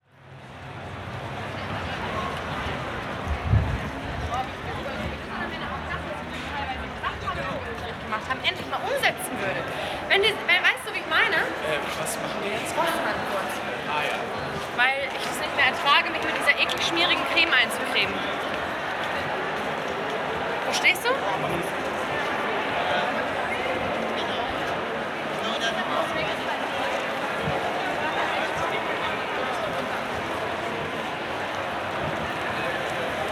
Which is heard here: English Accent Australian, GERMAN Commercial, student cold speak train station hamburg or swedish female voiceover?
student cold speak train station hamburg